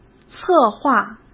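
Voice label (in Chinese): "策划", Mandarin Chinese; hsk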